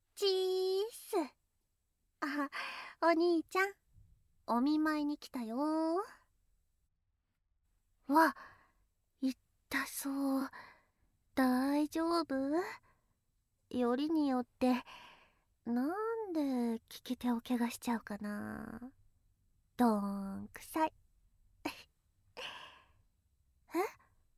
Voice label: カウントダウンあり射精音なし, ASMR

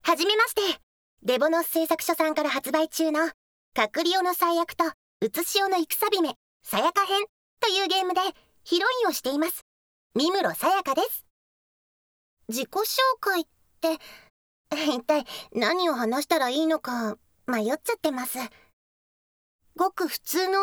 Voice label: 自己紹介, ASMR

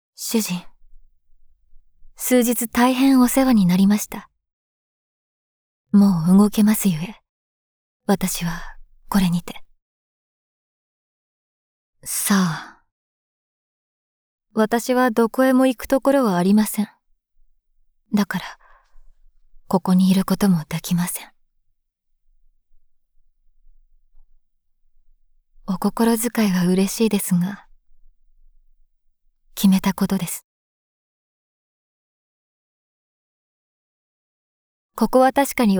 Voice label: 声のみ, ASMR; 効果音なしver

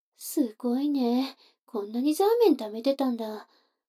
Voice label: 女の子台詞, ASMR

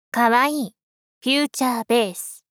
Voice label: かわいい！ふゅーちゃーべーす お姉さん 中音域

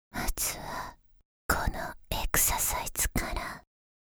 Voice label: 「まずはこのエクササイズから！」（ささやき）

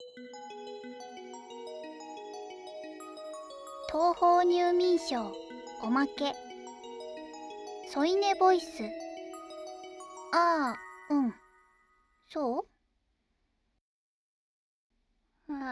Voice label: 添い寝ボイス; 東方入眠抄おまけ; ああ、うん。そう?

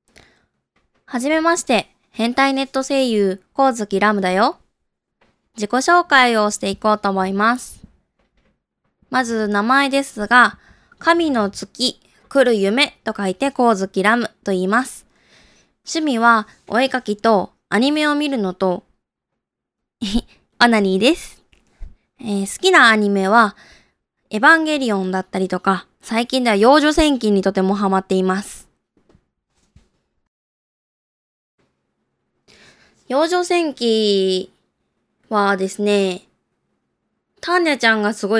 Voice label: 自己紹介 エロトーク

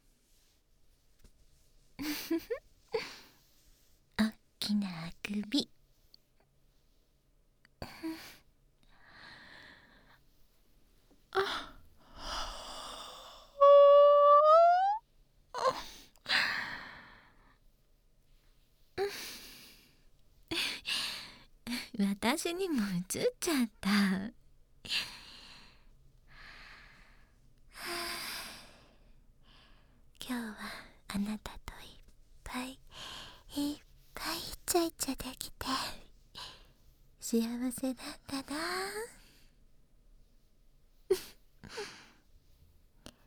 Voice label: ピロートーク

